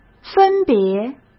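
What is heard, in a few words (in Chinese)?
"分别", Mandarin Chinese; hsk; chinese-flashcards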